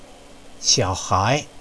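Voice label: "xiaohai", Chinese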